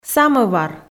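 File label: "самӧвар", Komi, female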